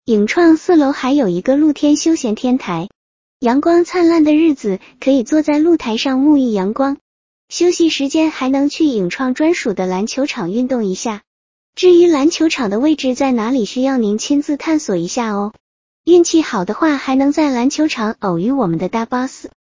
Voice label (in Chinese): 迅捷文字转语音